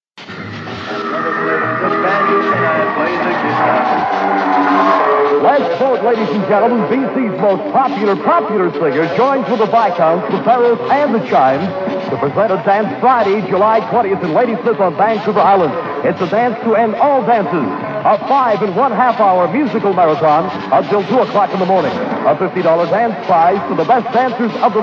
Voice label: Radio Commercial; Red Robinson; 1962